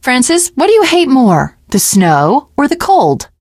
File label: teengirl, voice; Left 4 Dead 2